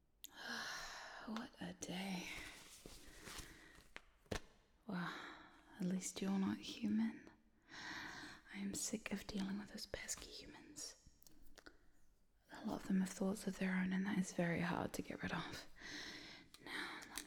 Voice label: Robotic ASMR Re-Brainwashing Roleplay VOCAL ONLY; Dr Subject Fixes You